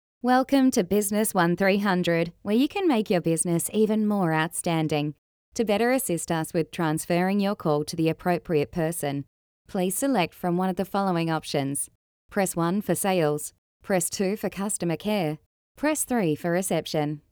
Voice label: Natural Business